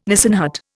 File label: "Nissen hut", Indian